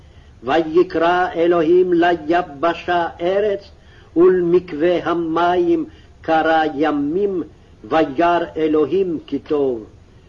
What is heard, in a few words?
Genesis Reading Practice, Aramaic